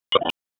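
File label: Raleigh Police North District Tactical, p25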